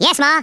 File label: yes sir, Redneck